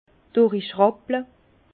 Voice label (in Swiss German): "durichrapple", Bas Rhin; foulage; vin